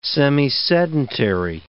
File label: "semisedentary", English